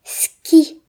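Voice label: "ski", Breton; gcompris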